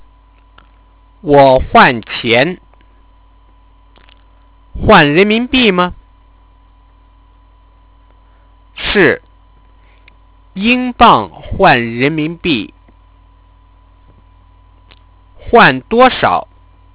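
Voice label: Exchange money conv, Chinese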